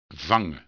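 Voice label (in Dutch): "vangen", Dutch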